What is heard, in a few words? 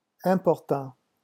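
"important", French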